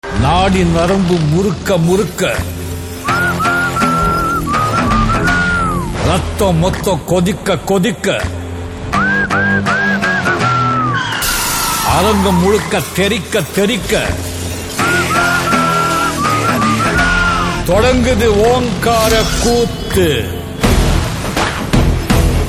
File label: Dialogue Ringtone; Annaatthe; Rajinikanth